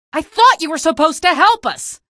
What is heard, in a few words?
evac casualty, female; episodic; episode 1; Source engine